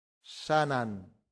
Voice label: "sanant", latin; santillana